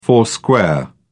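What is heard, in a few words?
"four square", English, British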